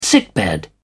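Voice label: "sickbed", British English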